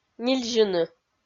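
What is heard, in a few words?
"нильзьыны", Komi, female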